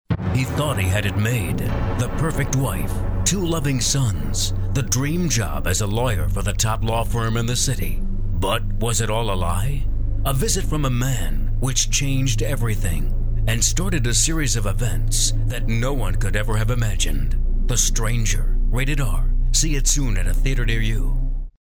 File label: Movie Trailer; Movie Trailer Voice Over